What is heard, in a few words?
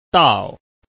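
"dao", Chinese, tone 4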